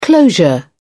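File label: "closure", British English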